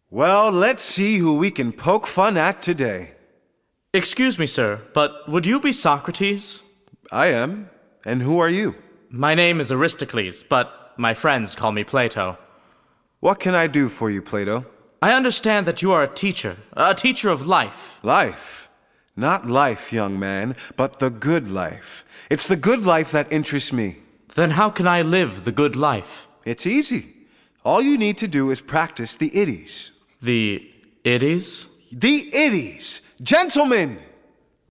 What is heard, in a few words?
Dialog